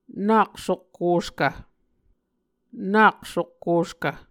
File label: "nak sokkoskv", Muscogee